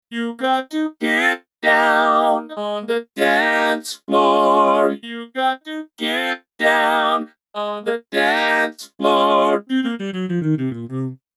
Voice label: vocoder